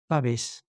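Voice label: "Paves", Occitan Languedocien; Prêts pour l’Òc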